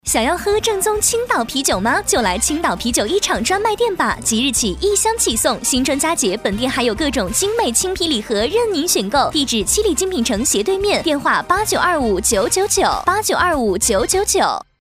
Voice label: B女 促销; 青岛啤酒一厂专卖店

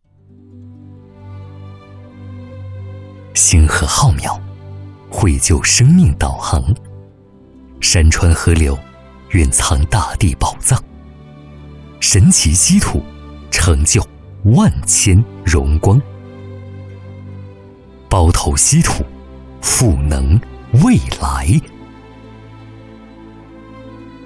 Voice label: 男 专题片; 包头稀土-赋能未来

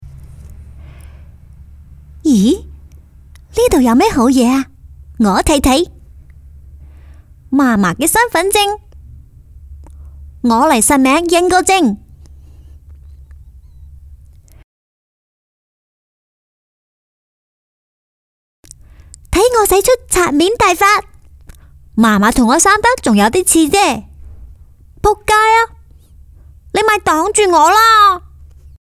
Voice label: 女 粤语 童声 角色

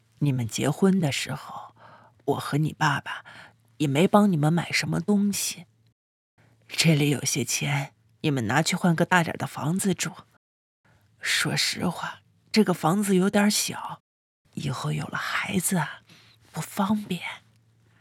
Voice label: A女 角色 大妈

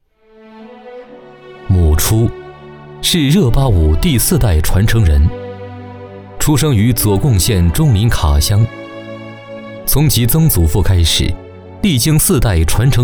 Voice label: 男 纪录片 自然讲述 人物热巴舞传承人

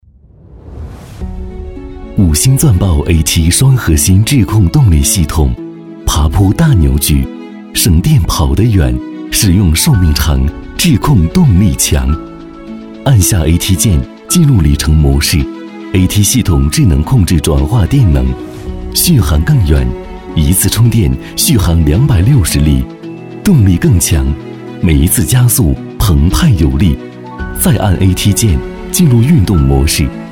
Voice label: A男 科技感宣传片; 五星钻豹

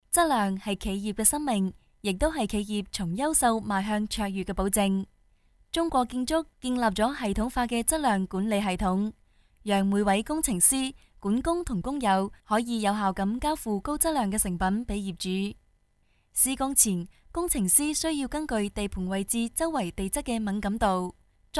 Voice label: Y女 粤语 课程培训讲解

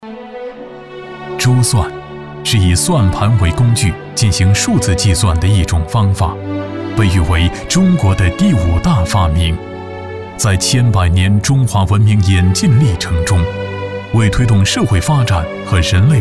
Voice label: 男 宣传片走心旁白 舒缓 有感情; 珠算

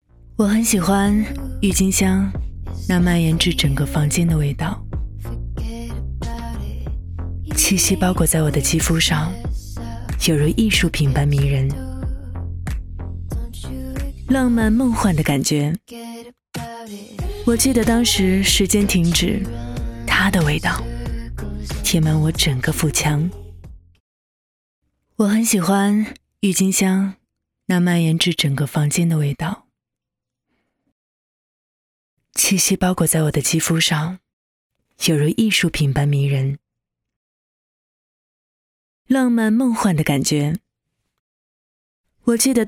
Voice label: 女, 高端广告-酒店

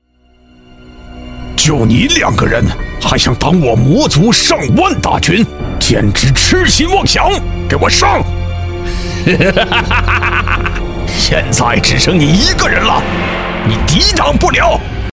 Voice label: 男, 角色 反派

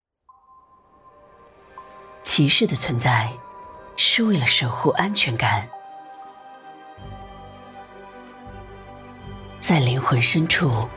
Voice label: B女 广告 成熟自信 化妆品; 高定化妆品骑士粉霜

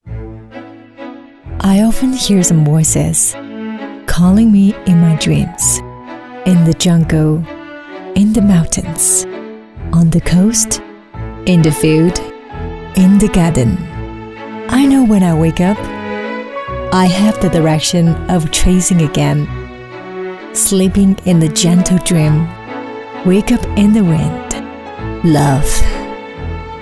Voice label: 女 英语 英文浪漫

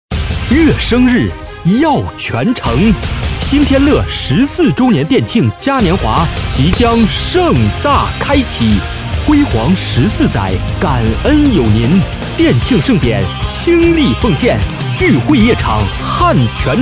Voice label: 男 广告 店庆; 新天乐

